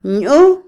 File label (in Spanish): "dulce", Otomí, mujer; Moderno; Vocabulary; INALI